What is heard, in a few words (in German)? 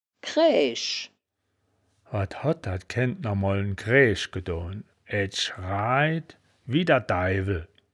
"kraeaesch", wittlich